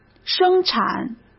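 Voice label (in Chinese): "生产", Mandarin Chinese; chinese-flashcards; hsk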